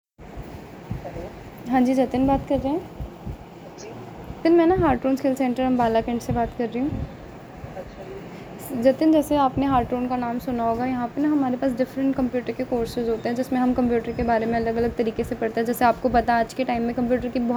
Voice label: call recording